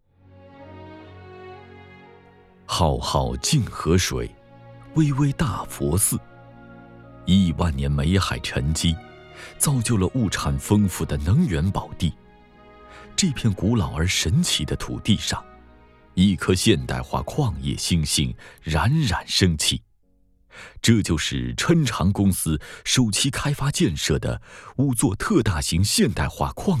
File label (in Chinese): 男 纪录片 工业企业纪录片